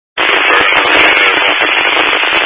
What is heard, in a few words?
Highway Patrol, scanner; DPS